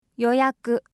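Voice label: "yoyaku", Japanese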